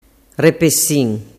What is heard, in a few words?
"repesin", dialettu